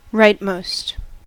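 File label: "rightmost", US English; pronunciations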